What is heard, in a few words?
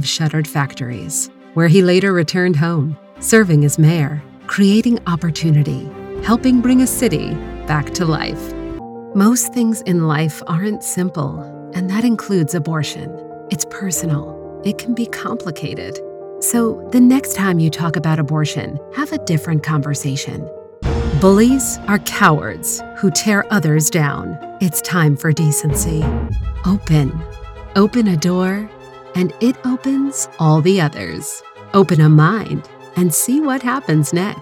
english american political ads